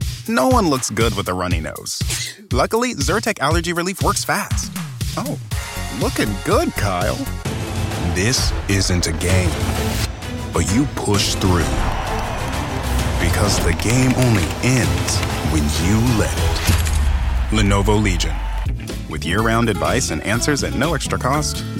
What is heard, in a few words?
Commercial